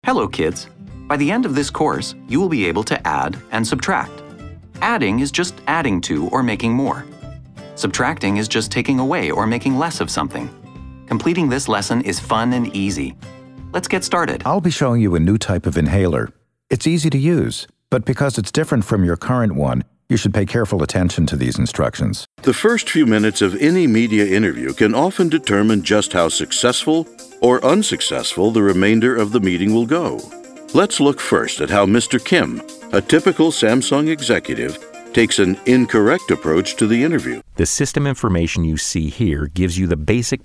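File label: VO Men Tutorial eLearning; Get Reel; eLeaning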